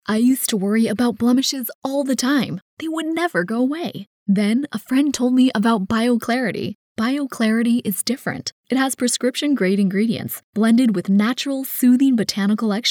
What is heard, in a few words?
english us and canada female commercial